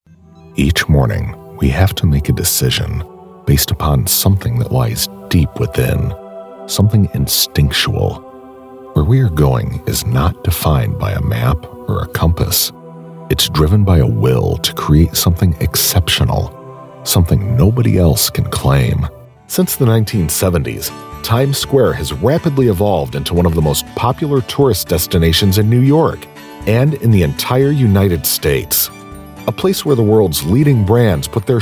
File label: US English Narration